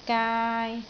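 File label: "gaai3", Cantonese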